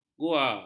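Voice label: "gua", Malay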